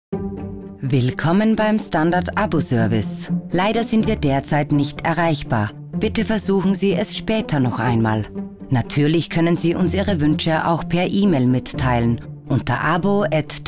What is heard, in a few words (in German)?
Tel